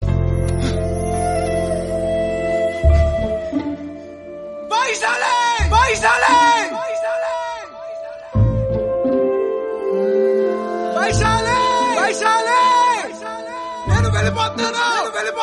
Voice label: Dialogue Ringtone; Vaishali; Nenu Vellipothunnanu